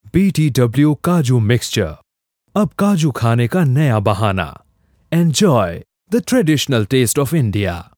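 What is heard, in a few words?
tvc hindi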